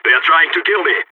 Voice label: radio taking fire, balkan; Counter-Strike Global Offensive